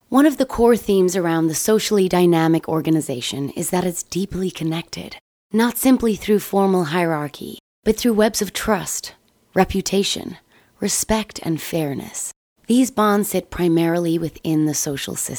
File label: English American Accent Corporate